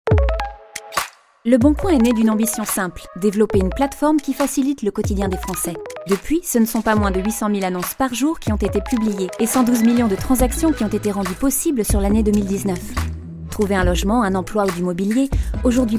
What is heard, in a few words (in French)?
INSTIT FR; Leboncoin